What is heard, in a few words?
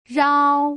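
"rao", Mandarin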